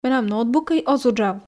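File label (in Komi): менам ноутбукӧй оз уджав, Komi, female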